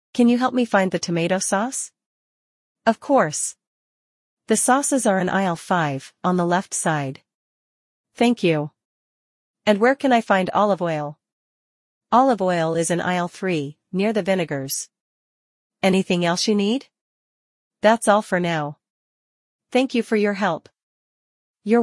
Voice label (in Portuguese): dialogos simples em ingles